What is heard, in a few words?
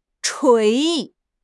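"锤", Chinese; HSK6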